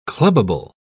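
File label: "clubbable", English, British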